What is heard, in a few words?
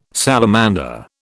"salamander", British